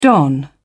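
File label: "don", British English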